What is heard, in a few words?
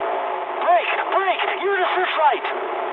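English searchlite mood high; War Thunder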